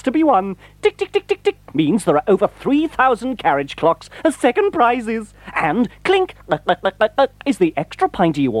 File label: Radio Advert, Milk Competition; Radio Hallam